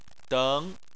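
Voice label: "deng" second tone, Chinese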